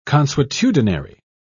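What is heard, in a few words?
"consuetudinary", British English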